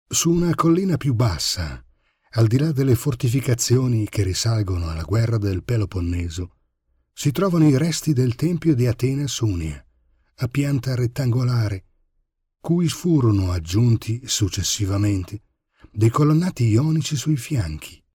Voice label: Italian voiceover, locutor italiano